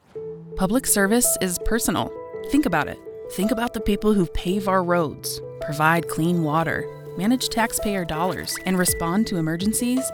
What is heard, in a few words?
Knowledgable, Approachable, Engaging, Warm, Clear; Open Gov